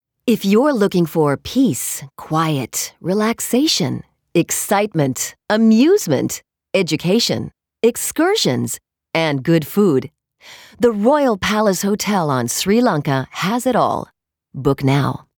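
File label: American English, W Fremdtake, Freundlich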